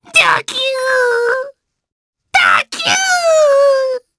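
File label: Vox Sad Japanese; Lakrak; kings raid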